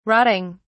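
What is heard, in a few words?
"rotting", British English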